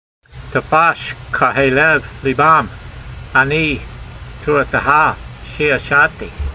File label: voice, hebrew language; Psalm 119:70; ta'fash ka'hayleyv leebam, a'nee torahteha shee'a'sha'tee